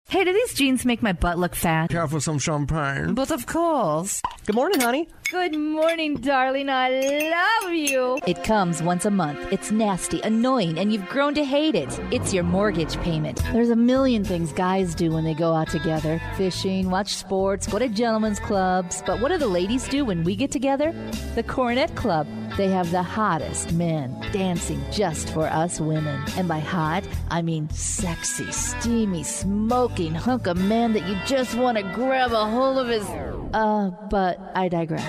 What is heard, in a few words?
Commercial Female